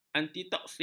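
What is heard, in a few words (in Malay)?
"antitoksin", Malay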